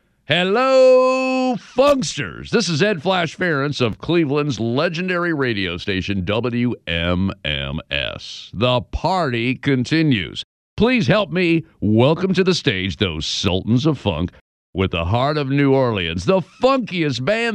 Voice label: VO Intro; Voodoo Funk Intro